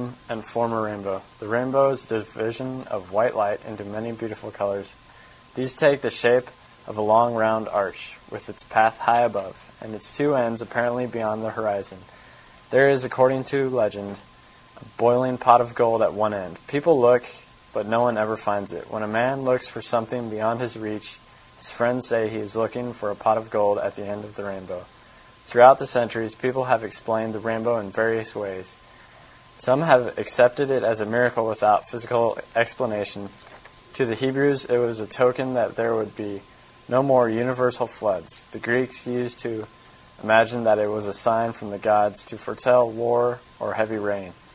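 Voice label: nebraska, International Dialects of English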